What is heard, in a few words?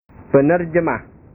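"penerjemah", Indonesian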